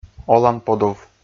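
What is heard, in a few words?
"оланподув", Komi, male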